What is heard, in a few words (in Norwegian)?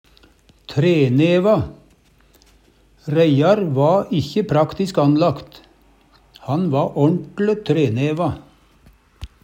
treneva, Numedalsmål; Adjektiv; Reiar va ikkje praktisk anlagt, han va årnt'le treneva.